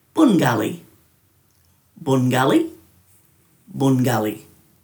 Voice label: "bun.gali", Dhurga

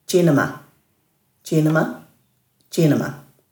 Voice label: "djinama", Dhurga

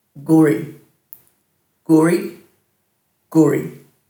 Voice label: "guri", Dhurga

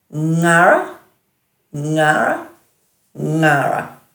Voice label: "ngara-", Dhurga

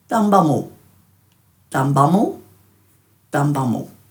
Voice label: "dhambamu", Dhurga

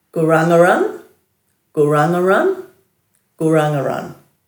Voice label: "guranguran", Dhurga

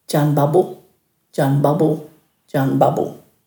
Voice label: "djanbabu", Dhurga

